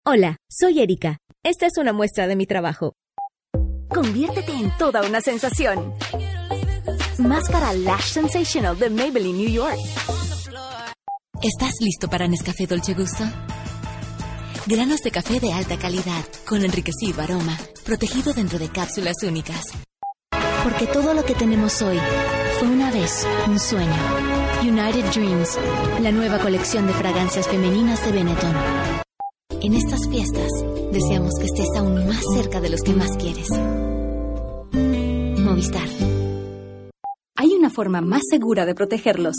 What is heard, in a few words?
DEMO ESP e ING